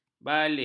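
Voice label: "baligh", Malay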